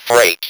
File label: "FREIGHT", Speak & Spell